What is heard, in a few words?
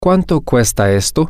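how much is that, spanish, male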